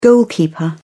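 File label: "goalkeeper", British English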